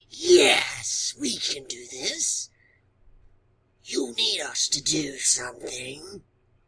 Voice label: random wheezy evil

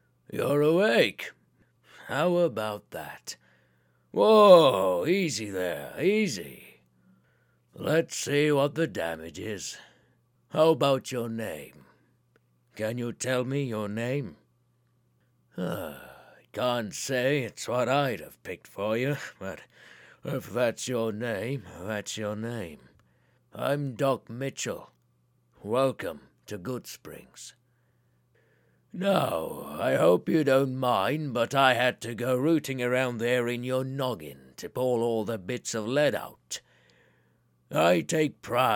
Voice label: senior british

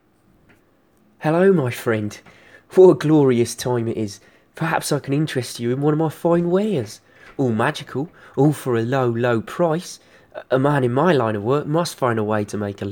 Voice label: breton voice auditions

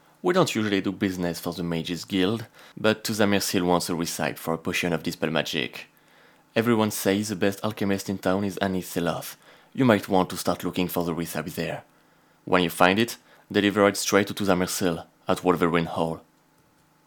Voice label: high elf voice auditions; potion recipe

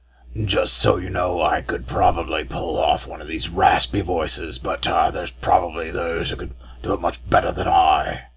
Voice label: raspy, dark elf voice auditions

